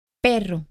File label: "DOG", Spanish (Latin American); PERRO